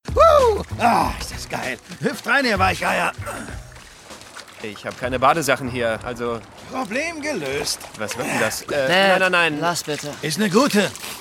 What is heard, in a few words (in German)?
Synchron, maenner; Vater des Jahres